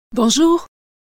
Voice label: good morning french